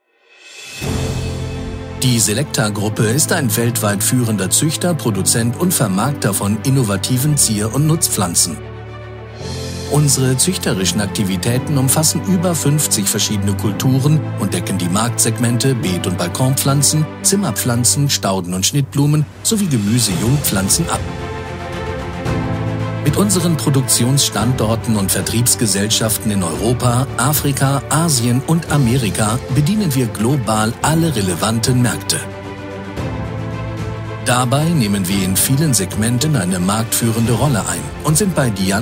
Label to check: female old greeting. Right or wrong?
Wrong